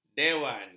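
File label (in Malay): "dewan", Malay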